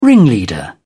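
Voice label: "ringleader", British English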